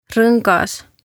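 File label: "Rongas" Estonian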